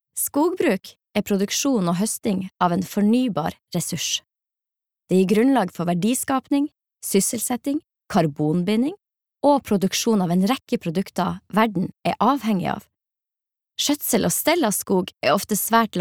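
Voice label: Norwegian informative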